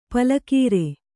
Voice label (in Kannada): "ಪಲಕೀರೆ", Kannada; pala kīre; noun; ring coronet swallow wort.